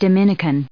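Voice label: "Dominican", US English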